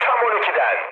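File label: bomb success, mood high, Turkish; War Thunder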